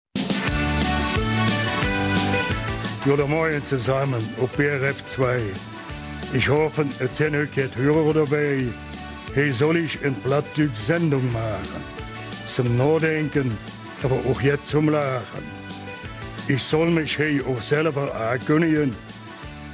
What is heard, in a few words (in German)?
Eifeler Mundart